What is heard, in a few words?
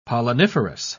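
"polliniferous", British English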